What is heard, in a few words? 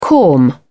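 "corm", British English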